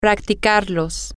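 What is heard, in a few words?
to Practice It masculine plural, Spanish, female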